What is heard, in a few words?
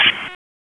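Raleigh Police North District Tactical; p25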